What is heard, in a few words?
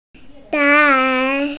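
"gai1", Mandarin Chinese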